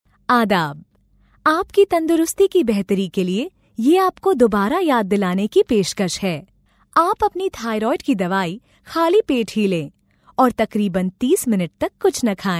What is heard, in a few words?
urdu ivr, voice actors; professionelle indische Sprecherin